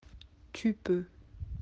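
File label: "pouvoir", French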